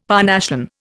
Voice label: "Parnassian", Indian; Dictionary